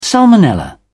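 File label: "salmonella", British English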